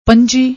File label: "panjee", Punjabi